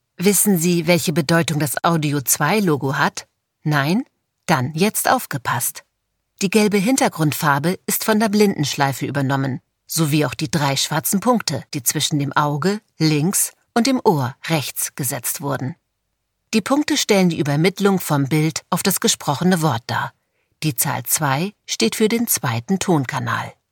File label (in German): Hochdeutsch; sprechproben